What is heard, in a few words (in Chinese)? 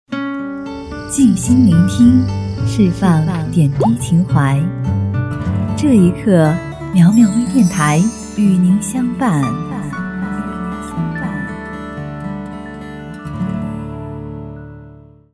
女 电台 抒情 温和 知性